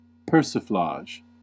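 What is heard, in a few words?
"persiflage", US English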